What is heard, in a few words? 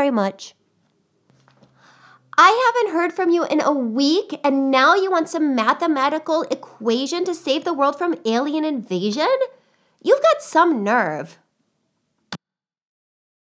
test boy girl